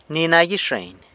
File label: "Ni na gyixeiny", San Lucas Quiavini; Like higaditos